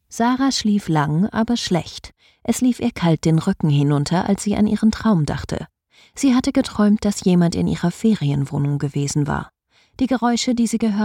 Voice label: german female audiobook, Youthful German Female Voiceover